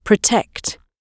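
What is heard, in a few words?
"protect", British English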